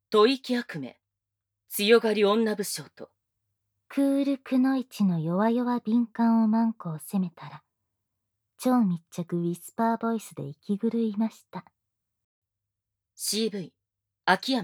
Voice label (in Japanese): タイトルコール, ASMR